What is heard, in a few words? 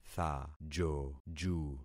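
"thajoju", concatenated syllables; Affixing experiment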